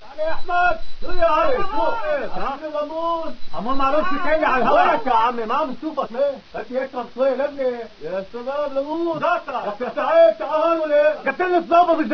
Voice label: arab voices